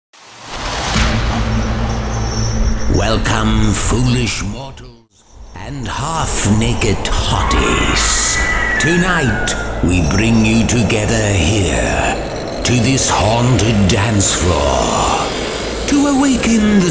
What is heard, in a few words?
Halloween Intro Special Voice 128 BPM; Halloween Club Killers Welcome Voice 2